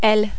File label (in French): "l", French